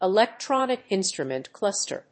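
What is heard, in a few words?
"Electronic instrument cluster", English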